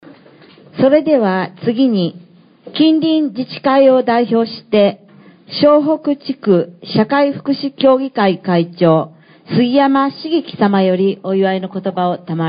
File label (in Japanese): 司会; rakuseishiki